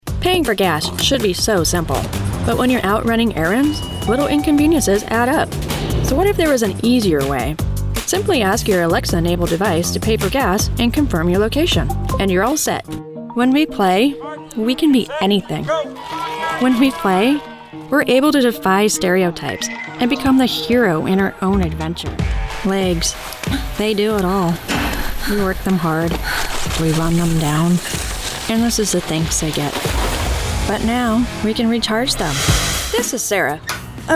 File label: Commercial